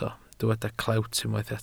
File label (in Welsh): "clewt" Welsh